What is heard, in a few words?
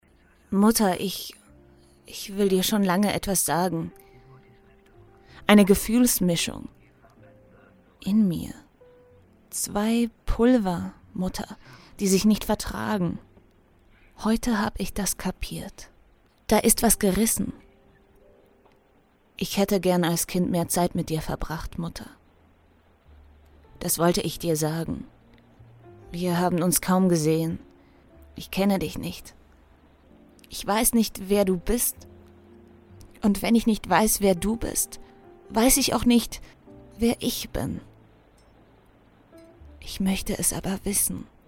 german video games